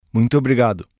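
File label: Portuguese male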